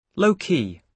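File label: "low key", British English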